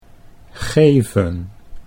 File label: "geven", Dutch